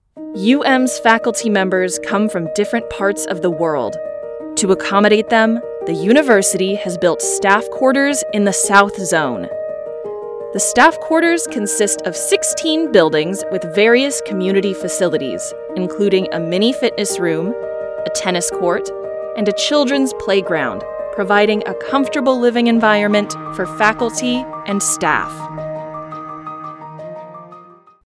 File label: English; staff quarters